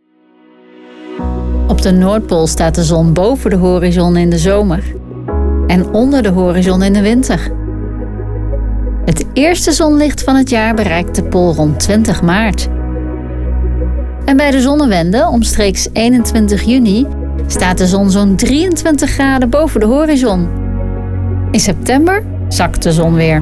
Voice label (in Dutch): Uitleg spreekstem